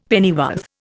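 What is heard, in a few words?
"pennyworth", Indian English; Dictionary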